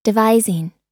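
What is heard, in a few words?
"devising", American English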